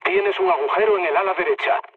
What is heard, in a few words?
right wing, mood low, speech; War Thunder